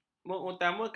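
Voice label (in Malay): "mengutamakan", Malay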